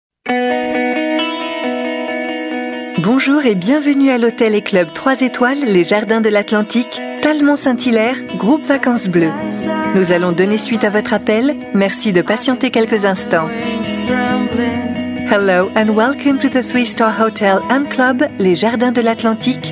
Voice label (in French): Attente telephonique FR US